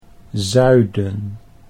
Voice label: "zuiden", Dutch

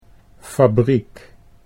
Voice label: "fabriek", Dutch

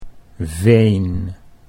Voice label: "veen", Dutch

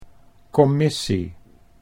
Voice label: "commissie", Dutch

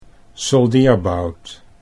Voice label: "soldeerbout", Dutch

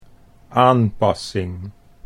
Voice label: "aanpassing", Dutch

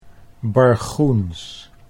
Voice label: "bargoens", Dutch

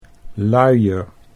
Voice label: "luier", Dutch

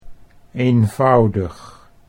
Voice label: "eenvoudig", Dutch

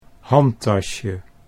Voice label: "handtasje", Dutch

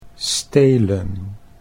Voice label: "stelen", Dutch

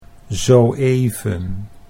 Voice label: "zo even", Dutch

